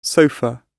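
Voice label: "sofa" British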